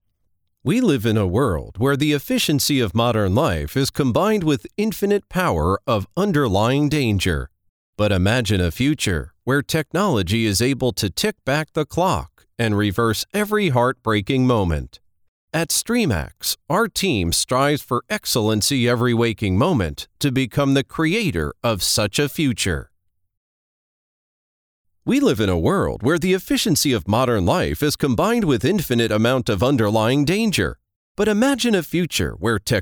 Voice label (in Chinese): 外籍英男 干音; streamax